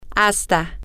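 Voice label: until you get to, spanish, female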